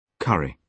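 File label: "curry", British English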